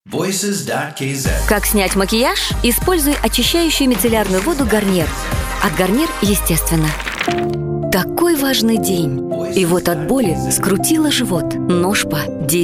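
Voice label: Russian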